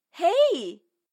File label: bonjour, voix